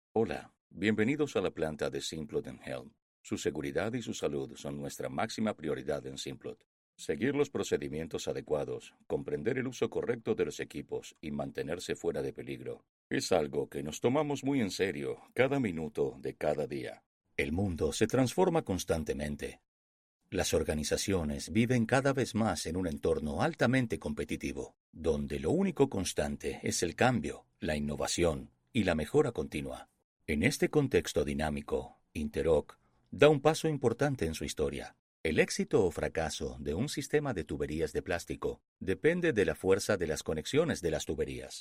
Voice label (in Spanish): manuales neutro